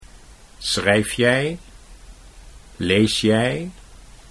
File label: "schrijf jij lees jij", Dutch